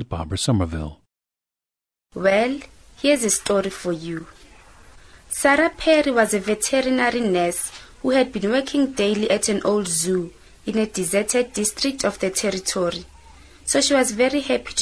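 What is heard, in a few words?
south africa, dialects of English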